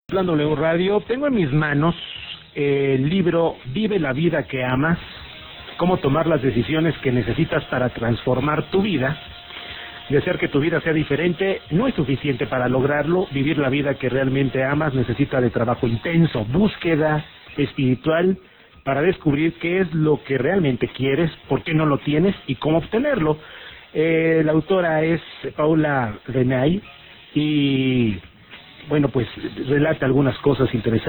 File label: Spanish Promo